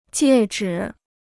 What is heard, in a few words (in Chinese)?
"戒指", Chinese